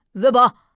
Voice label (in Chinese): 胖小孩, peiyin